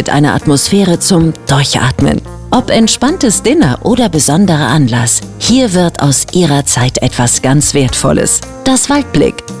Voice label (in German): Beispielspot Restaurant